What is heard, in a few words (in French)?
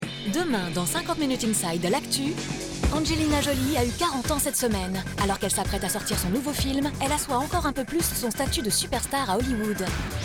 Bande Annonce; TF1; 50Inside